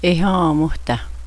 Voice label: sick, Cheyenne; Éháomóhtahe.; He is sick.

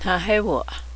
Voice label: wild horse, cheyenne

